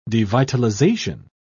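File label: "devitalization", British English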